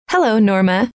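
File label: "hello", female; trainer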